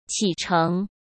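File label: "起程", Mandarin Chinese; chinese-flashcards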